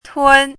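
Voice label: "tun1" Mandarin Chinese, high level tone; chinese-voice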